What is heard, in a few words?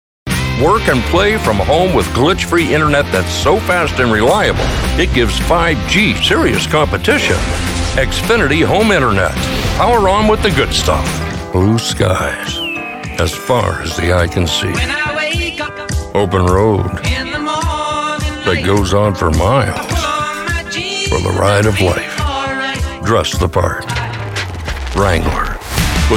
Commercials; American Voice Over Talent